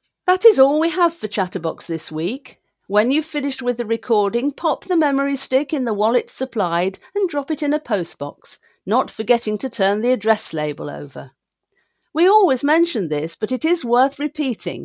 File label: Closing Announcement